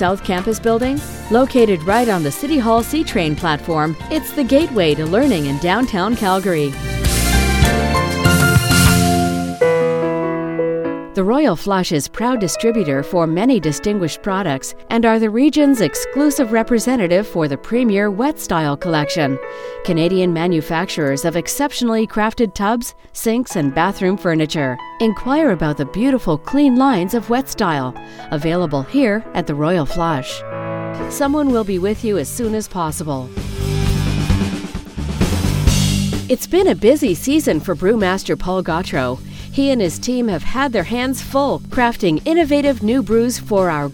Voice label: On Hold

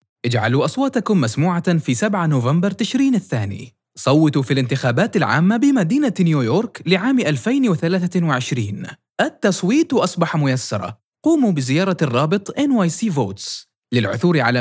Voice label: Standard Arabic; NYvotes

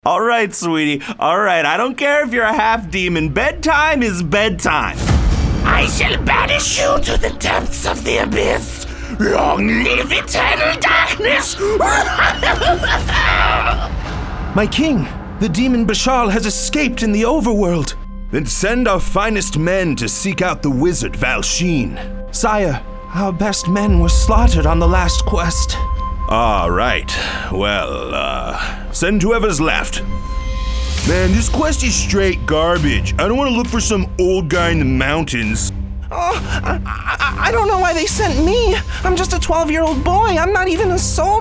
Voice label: Character

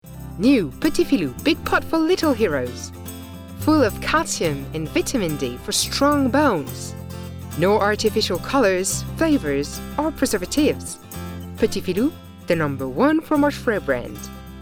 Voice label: Ad

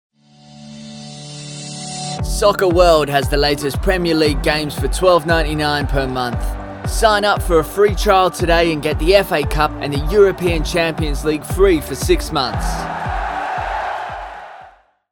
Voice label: British

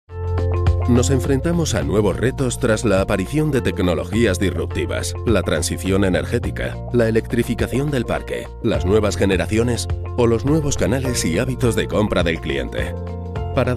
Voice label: Spanish Castilian corporate

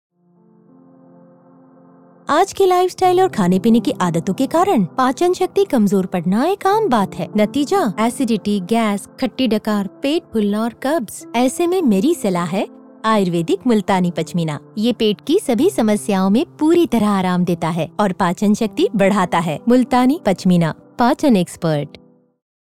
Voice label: with Music; MULTANI-PACHMEENA

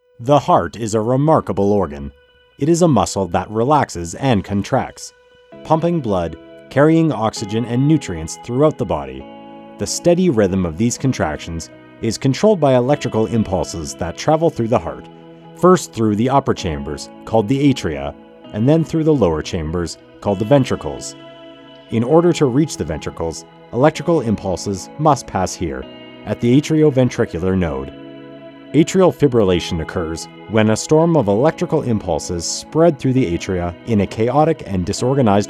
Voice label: Medical Narration

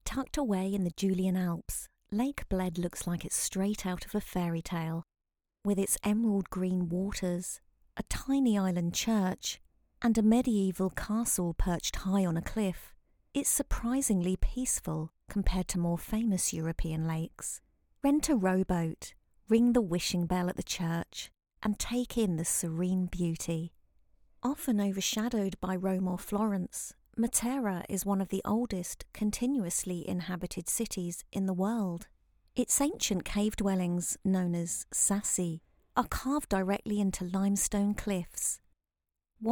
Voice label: Travel Documentary

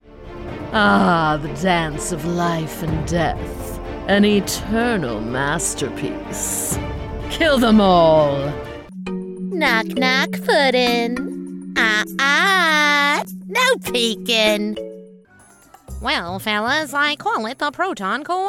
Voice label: Voice Character; Voice Over for Animation and Cartoon Characters

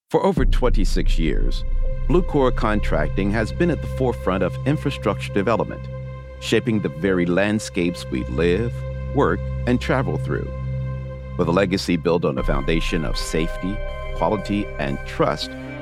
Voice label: Narration, Male Voice; E-Learning; Infostructure Demo

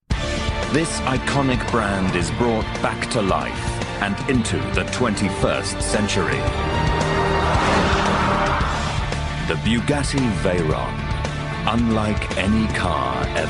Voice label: Narration USA

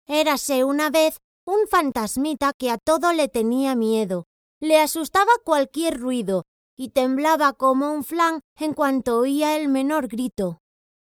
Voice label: LITTLE BOY

